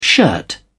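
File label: "shirt", British English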